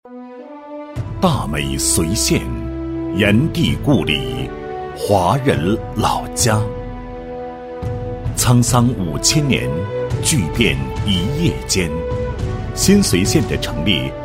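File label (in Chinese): 男 地区宣传 推动科技向“高精尖”领域迈进; 推动随县科技向“高精尖”领域迈进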